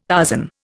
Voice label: "Tarzan", Indian